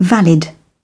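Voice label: "valid", British English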